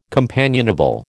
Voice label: "companionable", American

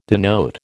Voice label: "denote", American

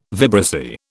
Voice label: "vibrissae", British

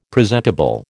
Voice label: "presentable", American

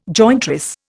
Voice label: "jointress", Indian

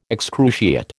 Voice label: "excruciate", American

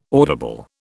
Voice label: "audible", British

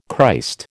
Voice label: "Christ", American

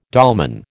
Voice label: "dolmen", American

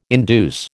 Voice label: "induce", American

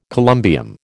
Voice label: "columbium", American

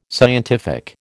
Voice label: "scientific", American

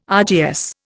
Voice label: "RGS", Indian

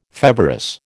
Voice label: "feverous", American

